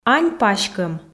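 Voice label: "ань паськӧм" Komi, female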